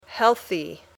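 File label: "healthy", English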